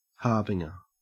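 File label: "harbinger", Australian English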